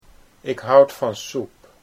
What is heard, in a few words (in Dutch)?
ik houd van soep, Dutch